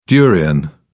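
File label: "durian", British English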